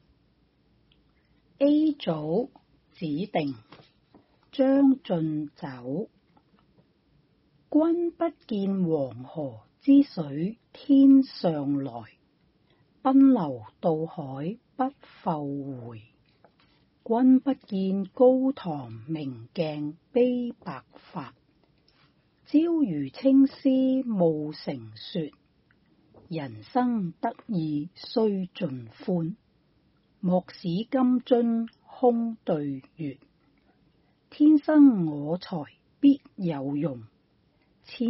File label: Cantonese Read Aloud; 2021 ANCCS 國粵語朗讀稿漢語拼音 Read Aloud Articles